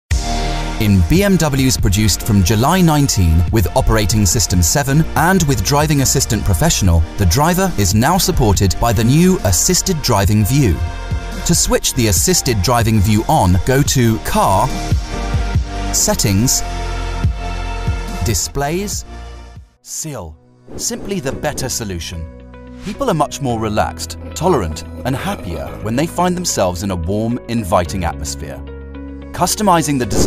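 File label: British English, male, corporate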